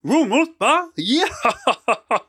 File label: Impressed; Dung Def